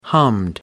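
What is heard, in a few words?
"hummed", English, British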